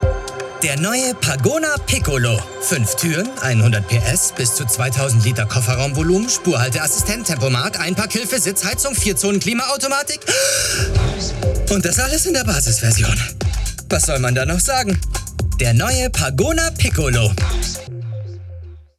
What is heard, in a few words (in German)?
Auto Piccolo DE, Werbung